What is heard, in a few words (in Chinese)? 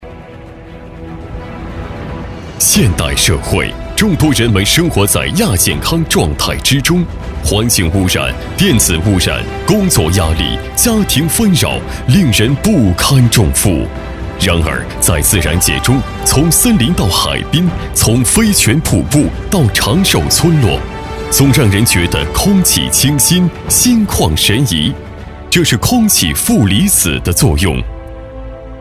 男-专题样音-浑厚舒缓; 欧亚奈儿健康生活馆